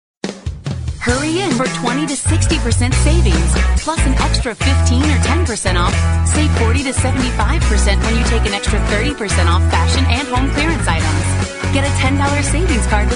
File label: TYPICAL RETAIL SPOT